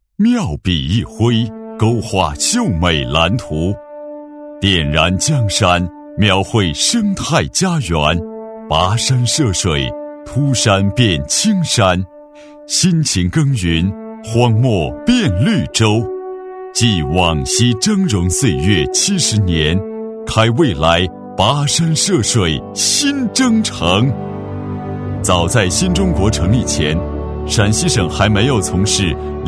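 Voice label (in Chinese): 男 宣传片【大气浑厚】; 男400-低沉磁性 大气浑厚; 陕西省林业规划院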